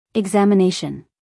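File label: "examination", American English female; everyone-can-use-english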